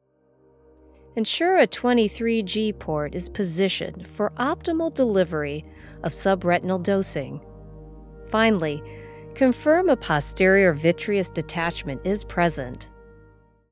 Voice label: Medical Narration